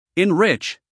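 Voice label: "enrich", American English, male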